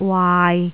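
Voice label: "waai6", Cantonese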